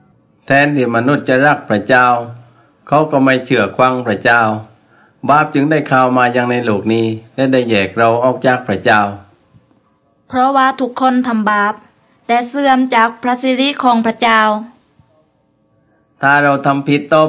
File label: words of life creation to christ thai southern